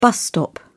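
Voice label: "bus stop", British English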